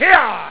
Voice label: cowboy